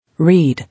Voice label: "Read", English

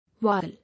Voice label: "Vowel", English

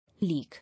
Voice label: "League", English

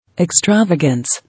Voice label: "Extravagance", English

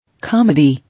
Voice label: "Comedy", English

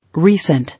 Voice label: "Recent", English

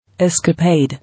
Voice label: "Escapade", English

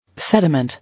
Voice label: "Sediment", English

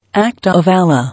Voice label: "Act of Allah", English

